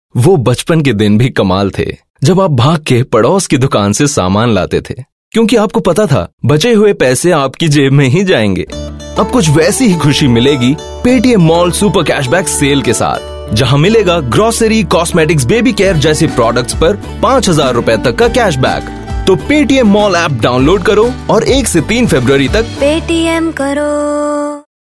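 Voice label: Voice over Hindi, male voice; PayTM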